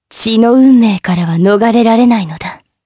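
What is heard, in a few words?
vox dialogue, voices, Japanese; Lewsia; kingsraid; jp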